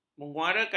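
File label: "menyuarakan", Malay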